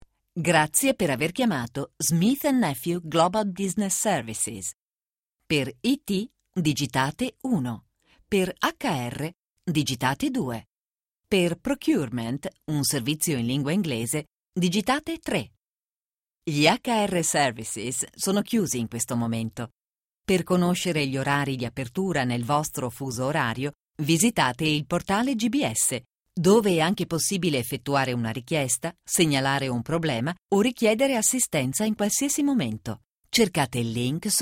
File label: IVR